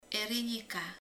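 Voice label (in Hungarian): "vese", Hungarian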